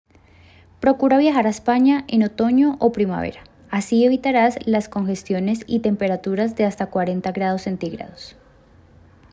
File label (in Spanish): Frase Femenina